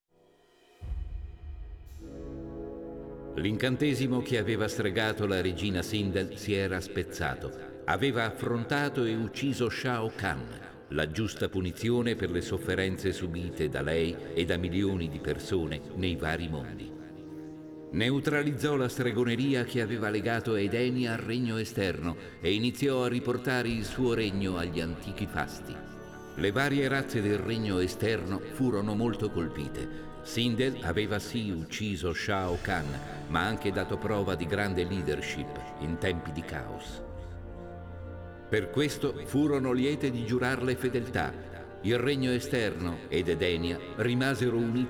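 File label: music voice over endings Italian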